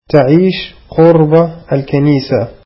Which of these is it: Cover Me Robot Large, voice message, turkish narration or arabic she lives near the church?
arabic she lives near the church